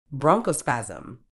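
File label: "bronchospasm", British English; ˈbrɒŋ.kəʊ.spæz.əm; BRONG-koh-spaz-uhm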